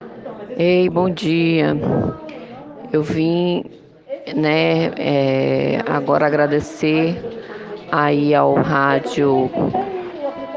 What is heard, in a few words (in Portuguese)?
Áudio de agradecimento